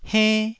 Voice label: "henn1", taiwanese